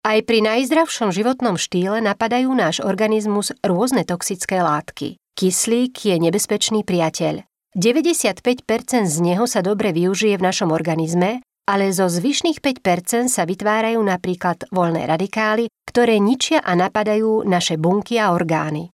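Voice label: Female Slovak documentary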